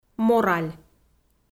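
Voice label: "мораль" Komi, female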